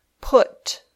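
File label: "put", English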